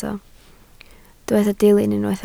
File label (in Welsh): "dilyn" Welsh